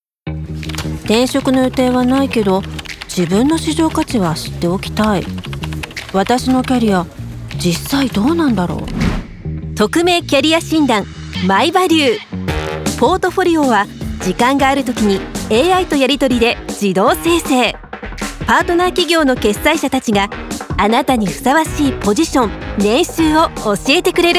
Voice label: Japanese TVC